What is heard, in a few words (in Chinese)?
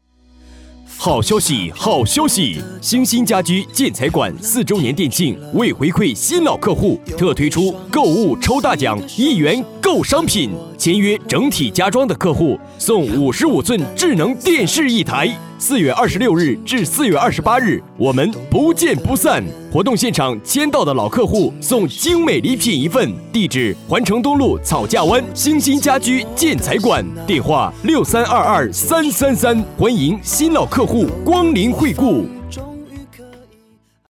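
男79 【促销】家居建材馆; 实惠大气; 兴兴家居建材馆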